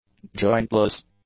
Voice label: "jointless", english; adjectives